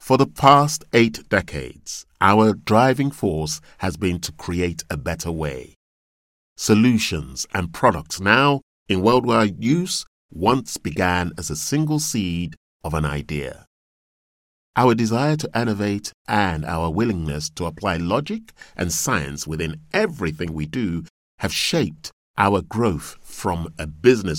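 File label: English African, Corporate Explainer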